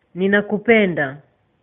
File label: i love you swahili